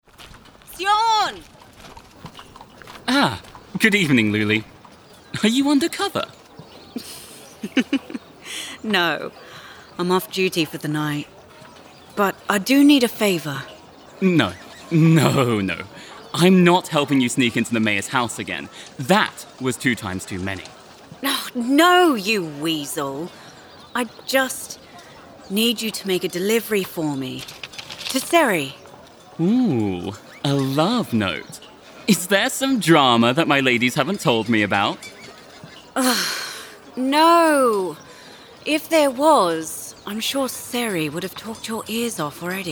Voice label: UK Adult F Dialogue Adventurous Cheeky Strong; Fever Woods; Lywly; accents characters age voice samples

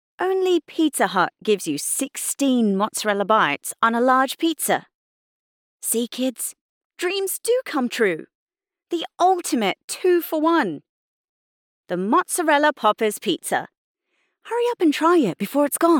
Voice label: VO Commercial UK; australian voice over

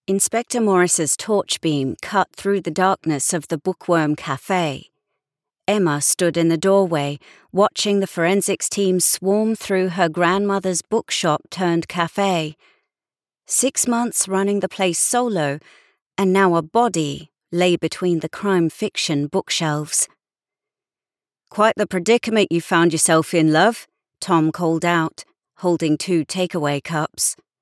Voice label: Audiobook Accent British London; fiction sci fi comedy australian american russian

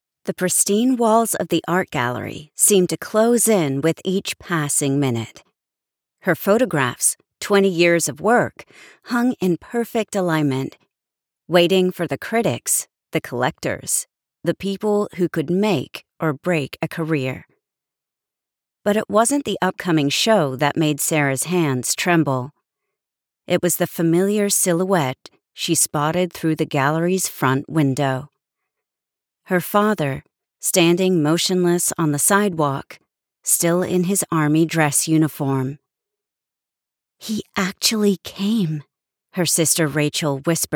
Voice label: Audiobook Accent American General

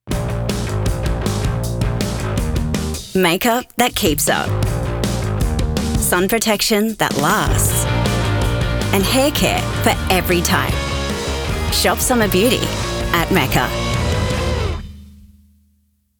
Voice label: voiceover Commercial Australian; accents characters age voice samples; MECCA

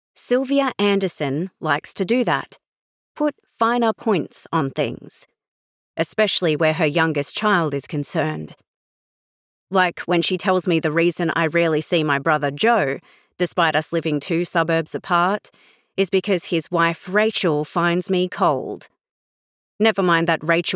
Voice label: voiceover Audiobook; australian voice over

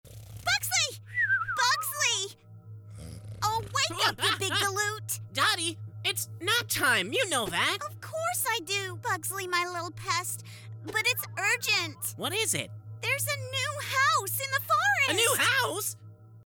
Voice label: High US Mid Atlantic Young Adult; fantasy; LilBakerFilms; Dottie

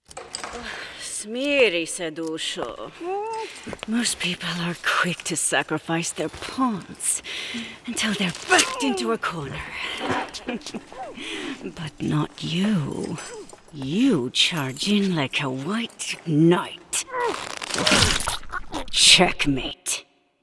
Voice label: Assassin F EU Captive Efforts, videogame sample; fighter

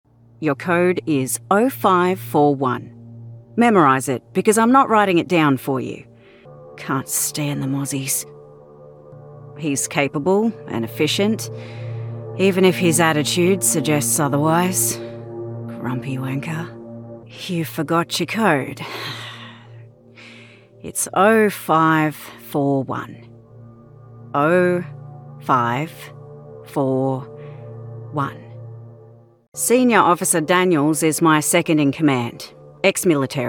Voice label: VO Games; ARTSEC; Dunwell

